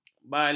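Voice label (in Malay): "bala", Malay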